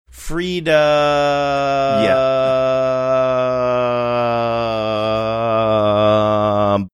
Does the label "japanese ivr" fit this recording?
No